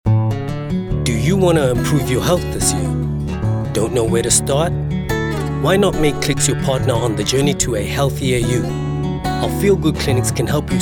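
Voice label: english South African male commercial; voice demos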